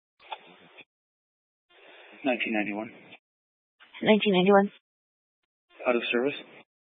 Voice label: Police Dispatch